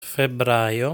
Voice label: "Febbraio", Italian

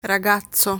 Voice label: "Ragazzo", Italian; lesson 6 articles plural

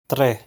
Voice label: "Tre", Italian; lesson 2 numbers

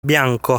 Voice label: "Bianco", Italian; lesson 6 articles plural

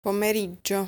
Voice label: "Pomeriggio", Italian

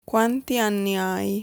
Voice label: "Quanti anni hai", Italian

